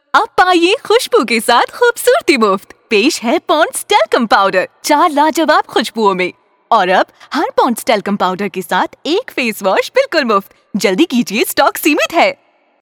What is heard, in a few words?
Commercial, female voice